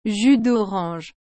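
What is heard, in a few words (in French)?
"jus d'orange", French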